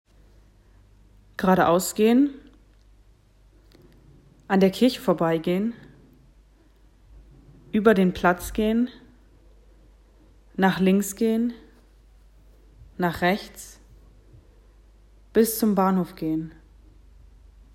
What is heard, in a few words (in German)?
blaue piste vokabeln normal